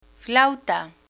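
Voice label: "flauta", Spanish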